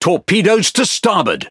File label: Speech Alarms Torpedo Right, EN GB; World Of Warships